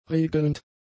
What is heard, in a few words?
"regelnd", German; adjectives